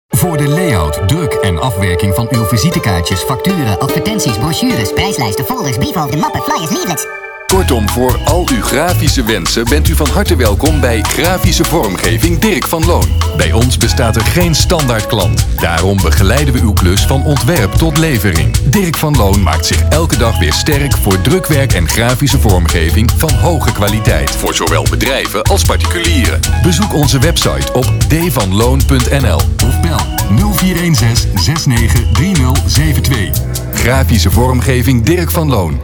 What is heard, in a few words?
commercial